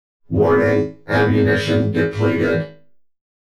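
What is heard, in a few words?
ammunition depleted, Video Game Announcer; DROID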